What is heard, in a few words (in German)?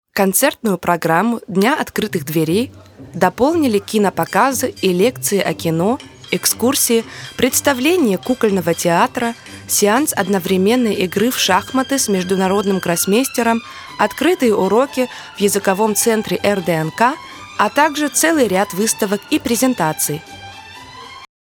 Voice Over Russisch; LOFT VOICES